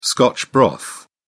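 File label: "scotch broth", British English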